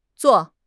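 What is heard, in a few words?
"zuo4", Mandarin Chinese; HSK1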